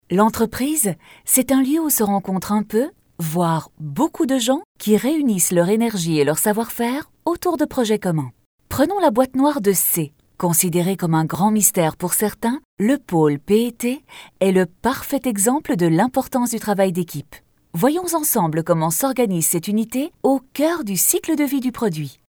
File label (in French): french narration